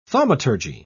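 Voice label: "thaumaturgy", British English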